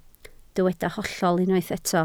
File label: "hollol", Welsh